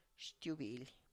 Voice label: "xtubily", elbow, tlacochahuaya

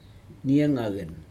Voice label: neiag aucen, august, Aneityum

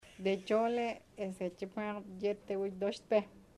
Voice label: tomorrow kingfisher wont eat fish, chamacoco

